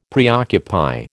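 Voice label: "preoccupy", American English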